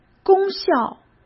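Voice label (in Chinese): "功效", Mandarin Chinese; hsk; chinese-flashcards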